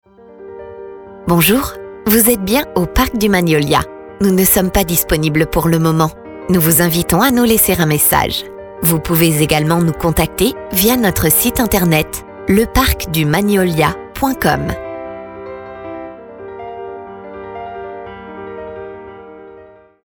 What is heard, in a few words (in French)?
Repondeur telephonique; Parc Magnolia